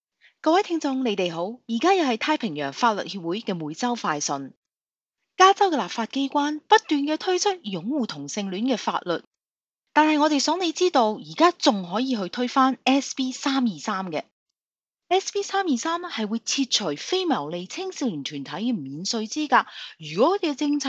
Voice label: cantonese